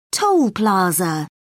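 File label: "toll plaza", British English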